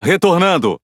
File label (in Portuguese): Speech Pilots Torpedo Land, PT BR; World Of Warships